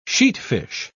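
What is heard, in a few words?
"sheatfish", British English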